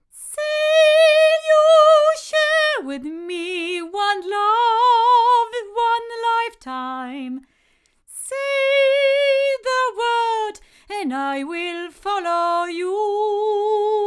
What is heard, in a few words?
ADK Hamburg female, microphones